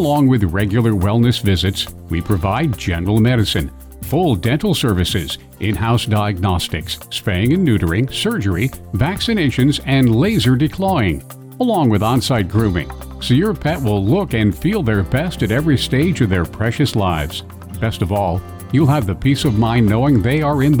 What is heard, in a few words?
Veterinary Message Male